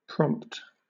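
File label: "prompt", British English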